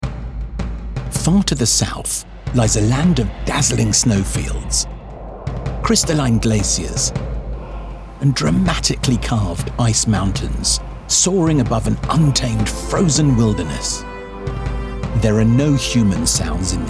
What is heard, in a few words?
Narration Reel